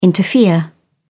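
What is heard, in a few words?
"interfere", English, British English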